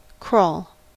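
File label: "crawl", US English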